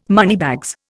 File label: "moneybags", Indian